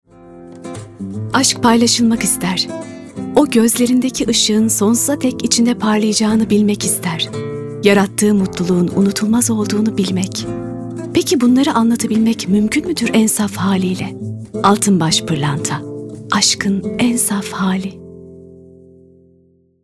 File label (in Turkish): duygusal motive, seslendirme